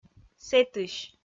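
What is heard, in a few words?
"сэтысь", Komi, female; kpv audio dict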